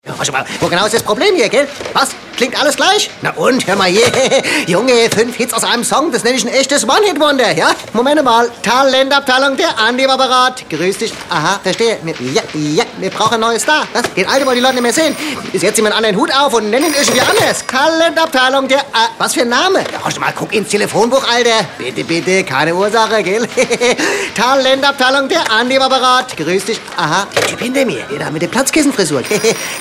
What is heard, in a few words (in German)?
hessisch; Hessisch 2